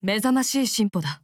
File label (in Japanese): LEVELUP JP; evelyn